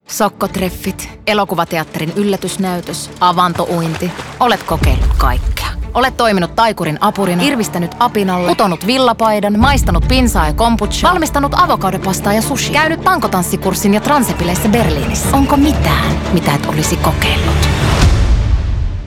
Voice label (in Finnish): radio; veikkaus; ravit; sokkotreffit